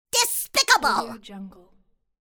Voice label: cartoon character despicable